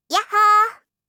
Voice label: "yahhoo", voice; aisatsu